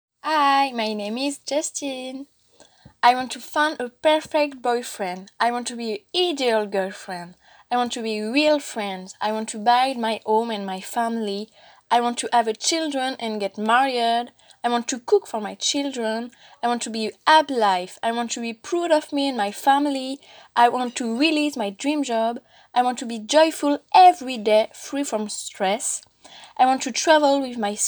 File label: slam english